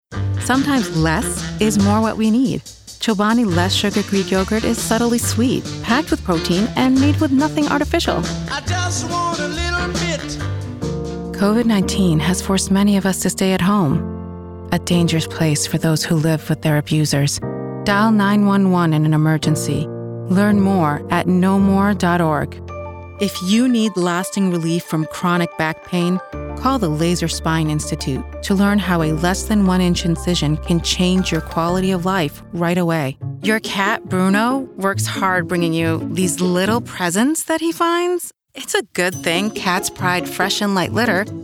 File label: Voice Demo